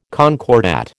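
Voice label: "concordat", American English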